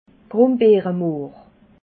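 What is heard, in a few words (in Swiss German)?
"grumbeeremohr", Alsatian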